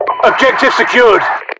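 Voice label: secured objective, UK; english; voiceovers; mpvoice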